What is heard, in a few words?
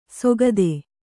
"ಸೊಗದೆ", Kannada